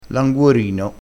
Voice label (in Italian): "languorino", vocabolario dialettale